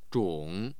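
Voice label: "zhong3", Mandarin Chinese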